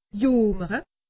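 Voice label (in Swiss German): "jomere", Alsatian; medecine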